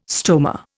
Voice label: "stoma", Indian

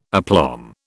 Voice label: "aplomb", British

